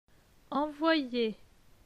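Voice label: "Envoyer", French; phonetique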